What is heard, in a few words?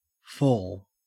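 "fall", Australian English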